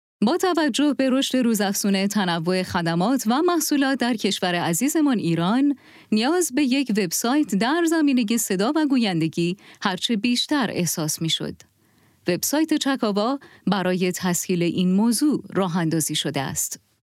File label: documentary, female narrators, english